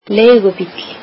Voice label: icelandic taxi